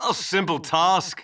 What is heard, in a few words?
Male; baldurs1